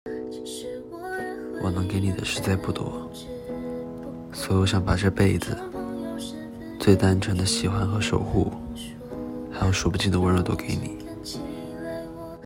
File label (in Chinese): 温柔